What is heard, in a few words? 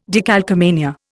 "decalcomania", Indian